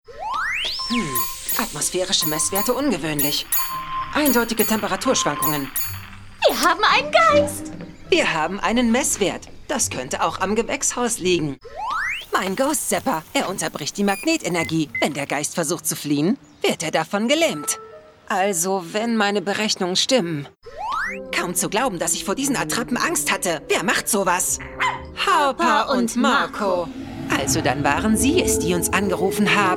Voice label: male german cartoon; ideasonora

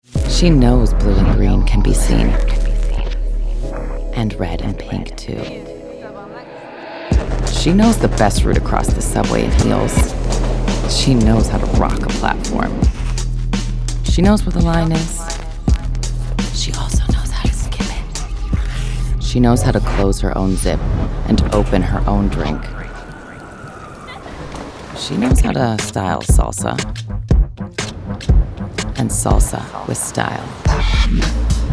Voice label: female american english story comercial

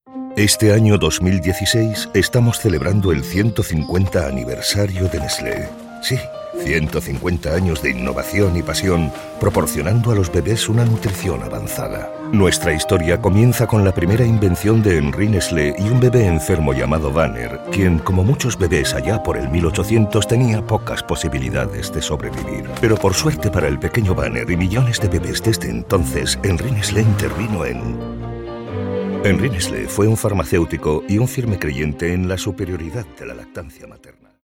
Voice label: male spanish internal comercial; ideasonora

